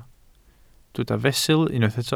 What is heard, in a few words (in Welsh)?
"fesul", Welsh